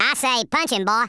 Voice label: "dragonpunch", Redneck

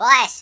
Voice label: "run away", Finnish; Worms Armageddon

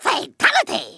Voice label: "fatality", Kamikaze; Worms Armageddon

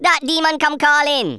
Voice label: "revenge", Rasta

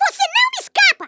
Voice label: just you wait, Speech Portuguese; Worms Armageddon

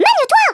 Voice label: come on then, French; Worms Armageddon

